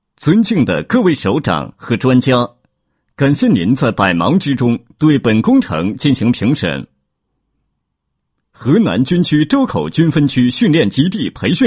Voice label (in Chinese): 专题 训练基地, 国语男声配音, 稳重专题; 河南